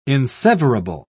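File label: "inseverable", British English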